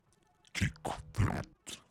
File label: "kick that 1", demonic techno voice; one shots; kick drum samples